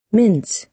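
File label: "mince", American English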